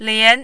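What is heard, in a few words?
"lian", Mandarin, second tone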